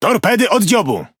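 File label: Speech Alarms Torpedo Ahead, Polish; World Of Warships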